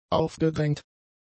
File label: "aufgedrängt", German; adjectives